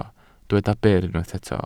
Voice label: "byr", Welsh

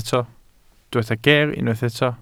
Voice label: "ger" Welsh

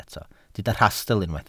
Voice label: "rhastl" Welsh

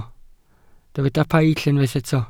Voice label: "paill", Welsh

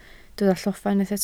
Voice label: "lloffa", Welsh

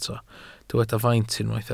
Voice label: "faint", Welsh

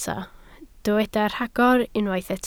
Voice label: "rhagor", Welsh

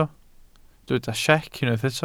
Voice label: "secr" Welsh

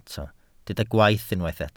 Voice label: "gwaith" Welsh

